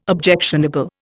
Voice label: "objectionable", Indian